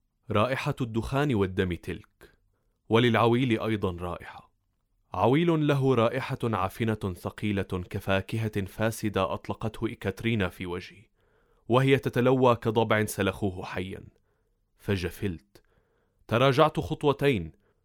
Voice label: male arabic voice over character